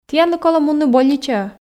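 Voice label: "тіянлы колӧ мунны больничаӧ", Komi, female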